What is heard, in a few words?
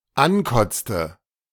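"ankotzte", German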